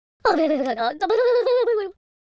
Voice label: pray, Spanish; speech